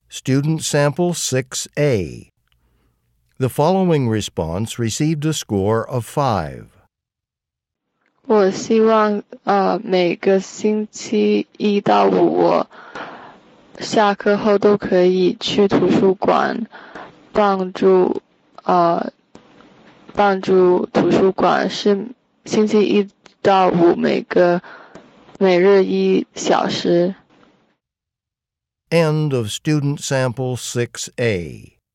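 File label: chinese conversation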